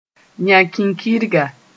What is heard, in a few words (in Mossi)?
"yã-kinkirga", moore